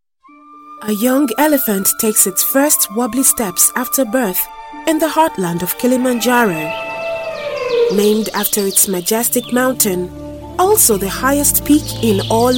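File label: African English Narration; GoLocalise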